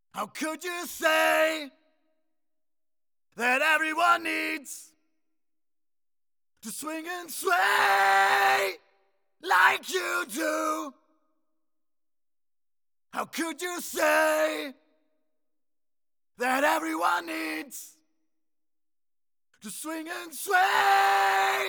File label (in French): vocals sans effet; novatron